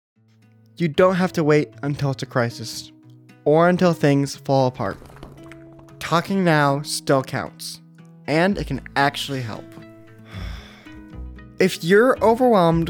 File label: RADIO SPOTS, Male; Right Now Counts; mental health conditions